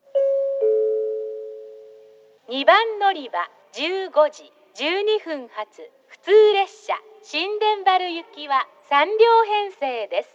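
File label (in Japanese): 2番のりば案内放送, 女声; 行橋; 普通 新田原行き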